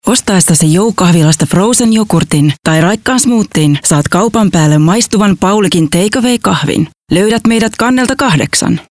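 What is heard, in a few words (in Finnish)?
Voice Over women Finnish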